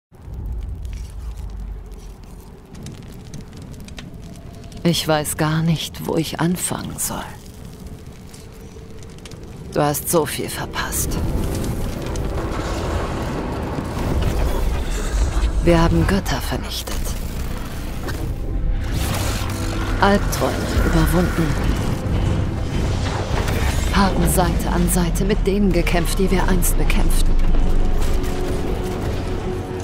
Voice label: GAMES Teaser Trailer warm-episch; Destiny2TeaserTrailer

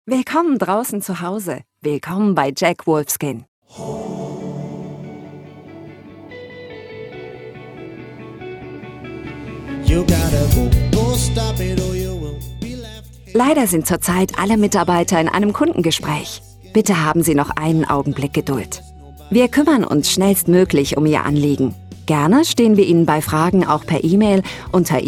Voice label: TELEFONANSAGE EShop Warteschleifen natuerlich-frisch; JACK WOLFSKIN

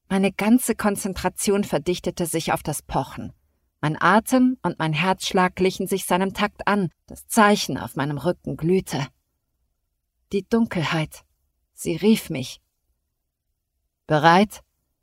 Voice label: Hoerbuch FANTASY; AshVergesseneSterne

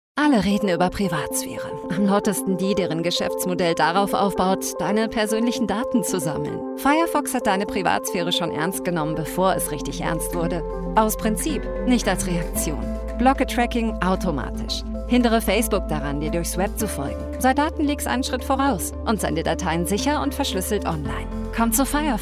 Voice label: WERBUNG natuerlich integrativ; MozillaFirefox

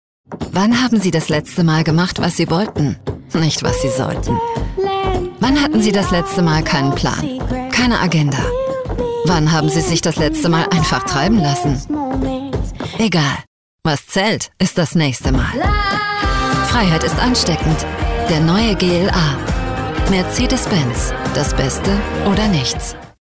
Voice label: WERBUNG Automobil jung-storytelling; AutomobilDEMO

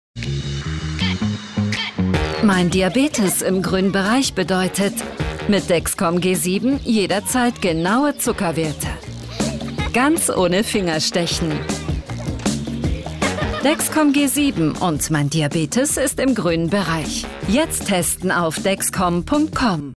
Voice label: TVspot Diabetes zugewandt praesent; DEXCOMDiabetes

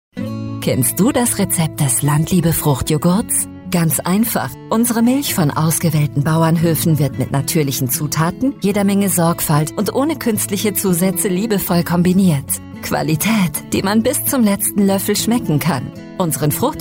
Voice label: WERBUNG nahbar-natuerlich; LANDLIEBE

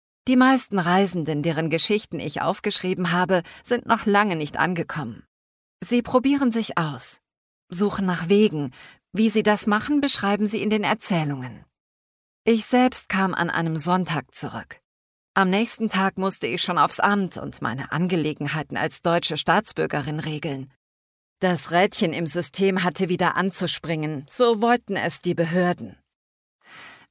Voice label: Hoerbuch RATGEBER; WiederDaUndDochNichtHier

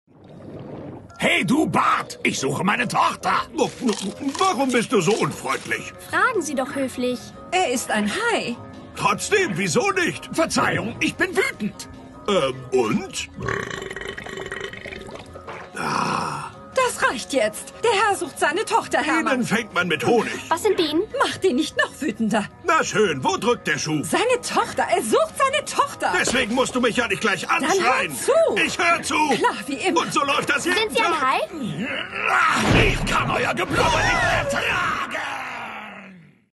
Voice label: SYNCHRON Anmimationsfilm Fischfrau warm-exaltiert; KIKA; TauchTimmyTauch

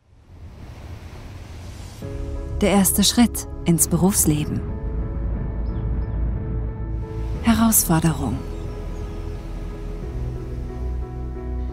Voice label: RECRUITINGFILM natuerlich-jung; BOMAG

